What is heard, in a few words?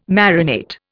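"marinate", Indian English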